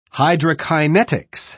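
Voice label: "hydrokinetics", British English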